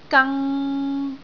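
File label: "gang3", Cantonese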